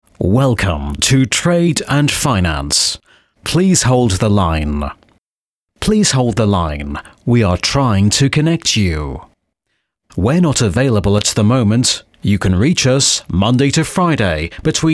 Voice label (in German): telefon